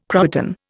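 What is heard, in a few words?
"croÖton", Indian English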